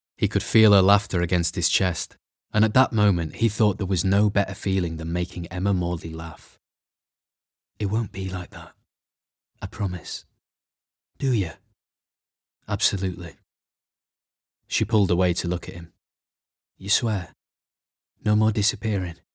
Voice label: Audiobook; One Day